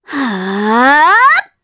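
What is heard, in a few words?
Vox Casting Japanese; Reina; kingsraid; voices heroes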